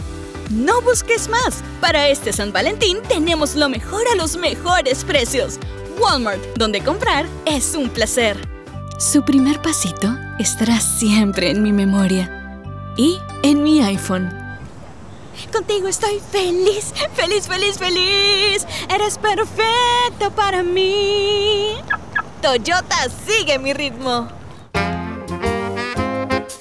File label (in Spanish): Demo Comercial